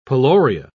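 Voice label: "peloria", English, British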